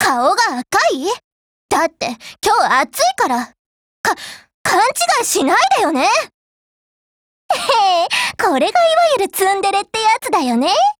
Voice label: DIALOGUE JP